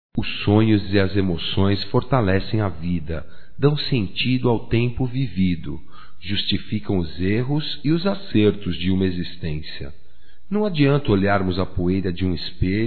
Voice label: FELICIDADE, masc; Amizades; Telemensagens 2017

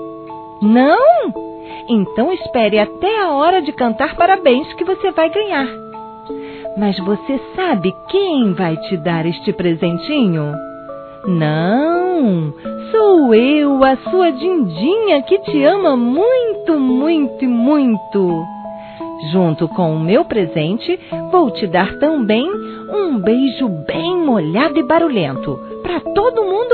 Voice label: Afilhado(a) Criança Feminino, Aniversário Infantil; Telemensagens

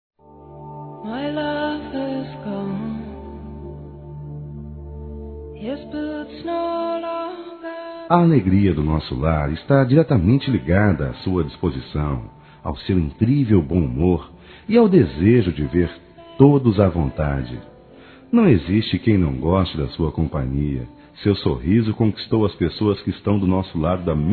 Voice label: MÃE FAMILIAR; Dia das Mães; Masc; Telemensagens 2017

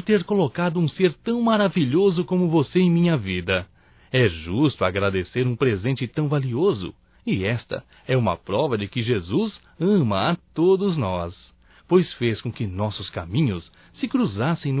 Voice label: Irmã Masculino, Natal; Natal e ano novo; Telemensagens 2017

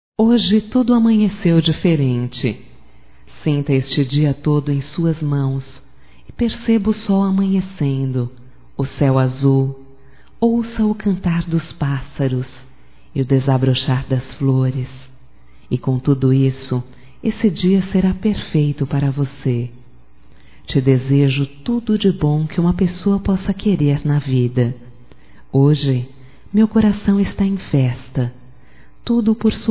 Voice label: ANIV NEUTRO, fem; Telemensagens 2017; Aniversarios

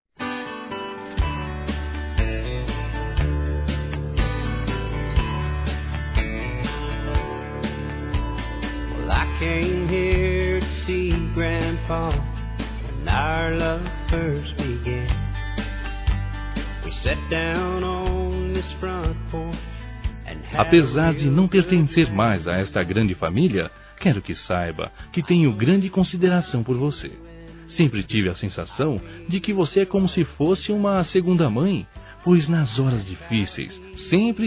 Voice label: EX SOGRA, Telemensagens

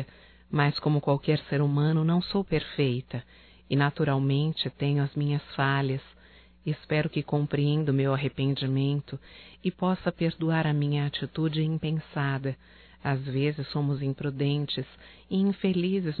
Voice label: DESCULPAS FILHO, fem; Telemensagens 2017

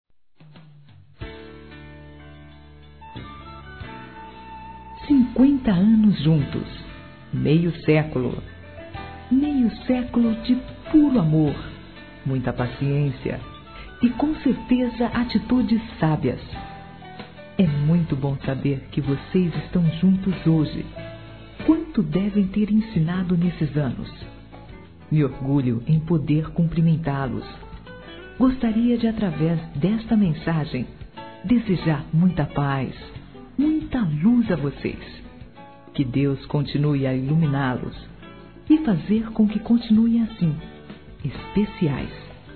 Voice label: BODAS DE OURO F, Telemensagens; Bodas e Casamento

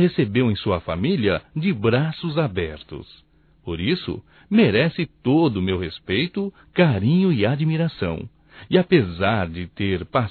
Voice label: Aniversário Atrasado Para Sogra Masculino; Aniversarios; Telemensagens 2017

